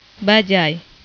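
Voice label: "bajaj" Indonesian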